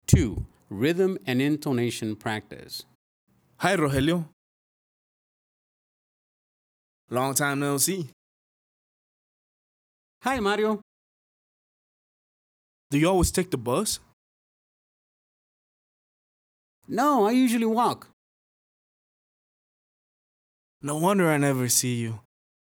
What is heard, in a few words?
Conversation Time Intonation Practice